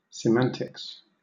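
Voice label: "semantics", British English; pronunciations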